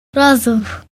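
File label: pink, Serbian; gcompris; colors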